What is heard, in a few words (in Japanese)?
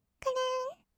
くるん, kawaii voice; ASMR; 色々なワンショット